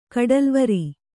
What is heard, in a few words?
"ಕಡಲುವರಿ", kannada